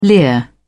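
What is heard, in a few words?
"leer", British English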